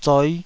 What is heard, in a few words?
"zoi5", taiwanese